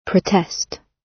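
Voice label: "protest", British English; Time, history; Thời gian, lịch sử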